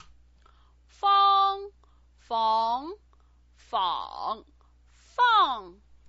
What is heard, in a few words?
"fang", Chinese pinyin